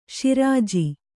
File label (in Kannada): "ಶಿರಾಜಿ", Kannada